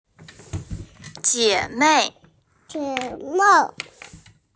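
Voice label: "jie3mei4", Mandarin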